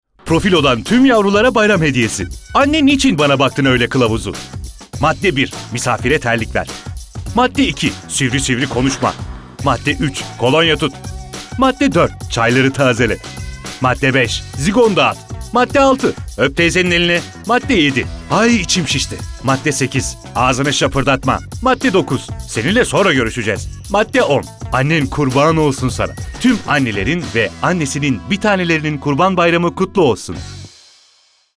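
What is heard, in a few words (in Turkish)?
neseli tempolu, seslendirme; enerjik tempolu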